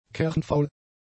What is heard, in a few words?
"kernfaul", German; adjectives